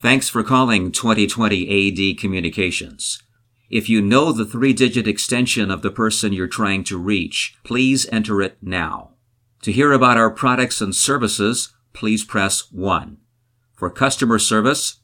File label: ON HOLD